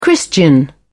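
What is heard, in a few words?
"christian", British English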